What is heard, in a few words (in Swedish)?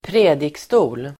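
"predikstol", Swedish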